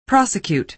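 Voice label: "prosecute", American English pronunciation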